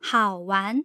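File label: "haowan", Mandarin Chinese, tone pairs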